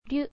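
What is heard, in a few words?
"ryu", Japanese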